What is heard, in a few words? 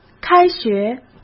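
"开学", Mandarin Chinese; hsk; chinese-flashcards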